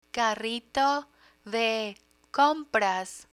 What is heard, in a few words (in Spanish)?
"carrito de compras", Spanish; retail store vocabulary; learn spanish